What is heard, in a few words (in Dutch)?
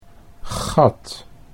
"gat", Dutch